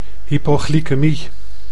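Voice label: "hypoglykemie", Dutch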